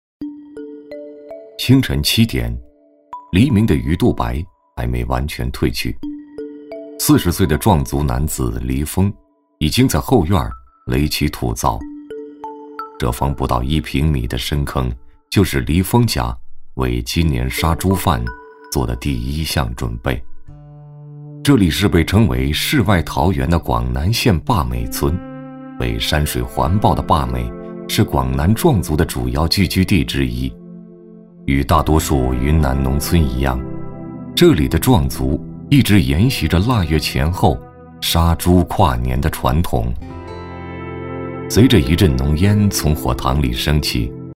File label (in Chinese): 男 纪录片 民间传统